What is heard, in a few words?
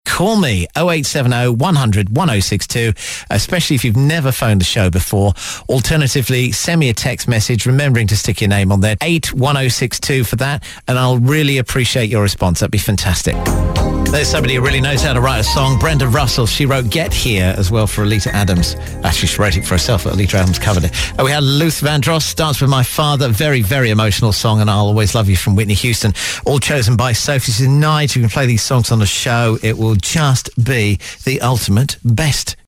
dj, voice